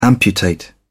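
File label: "amputate", British English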